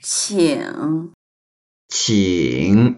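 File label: "qing3", Mandarin Chinese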